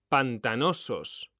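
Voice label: "pantanosos", Spanish; mekanta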